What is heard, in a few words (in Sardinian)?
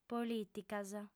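"polìticas", female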